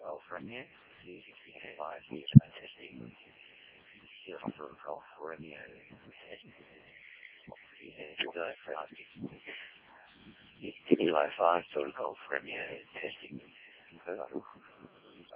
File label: decoded speech, parrot